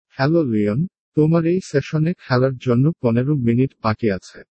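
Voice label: speech sample Bengali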